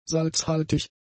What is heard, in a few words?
"salzhaltig", german; adjectives